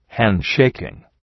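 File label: "handshaking", English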